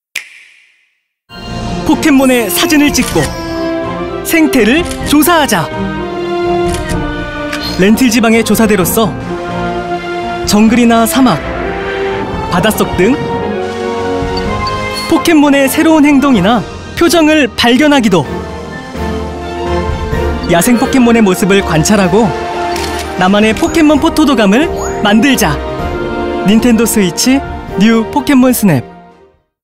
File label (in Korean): 밝은 희망찬 20대; KBS; 닌텐도